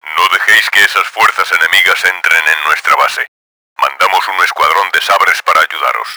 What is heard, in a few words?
engage tanks, Spanish; Battle Engine Aquila; XBOX